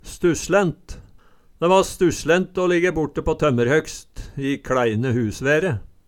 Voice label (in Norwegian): stusslent, Numedalsmål